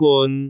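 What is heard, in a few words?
"gun6", Cantonese; googleD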